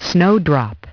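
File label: "snowdrop", English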